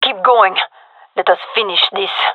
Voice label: round winning, fem; vo